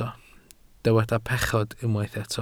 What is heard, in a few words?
"pechod", Welsh